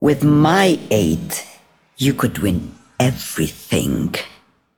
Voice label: Female Broker Flavor